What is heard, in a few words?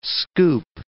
"scoop", English